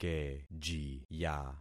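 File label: "kejiya", concatenated syllables; Affixing experiment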